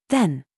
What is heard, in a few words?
DRESS TRAP, minimal pairs